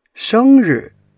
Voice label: "sheng1 ri4", Chinese